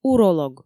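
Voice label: "уролог" Komi, female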